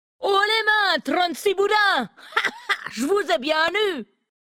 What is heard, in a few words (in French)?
Femme Blague Haut Les Mains; Nain sombrefer